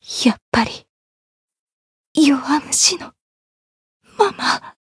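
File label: retire, Japanese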